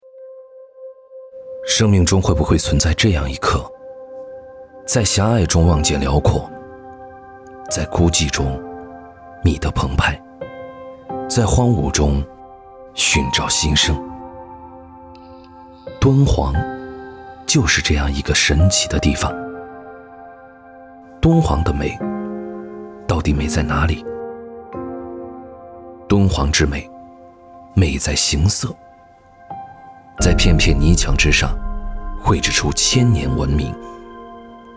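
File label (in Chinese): 男国大音-旁白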